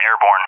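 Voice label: "airborne", English; DCS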